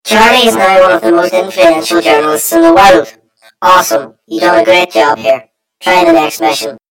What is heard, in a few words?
story voiceover; yumpod